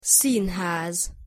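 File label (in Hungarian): "színház", Hungarian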